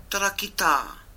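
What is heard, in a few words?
"tarakitā", Māori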